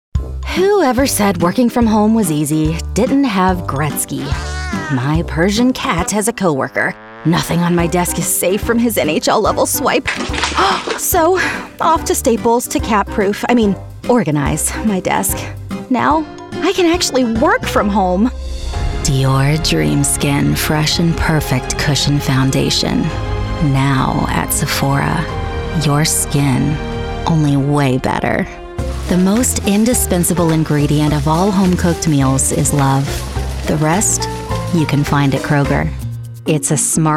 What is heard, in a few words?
Commercial